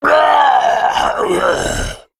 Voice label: Male Worgen Death